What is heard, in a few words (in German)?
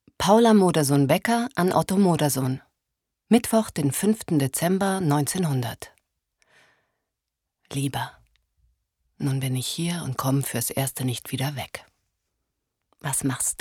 Lesung Brief